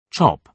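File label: "chop", British English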